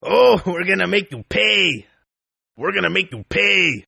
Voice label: Toughguy Pay English, Tough Hispanic Male; Saying "We Are Gonna Make You Pay!" In English; Attitude Sound Effects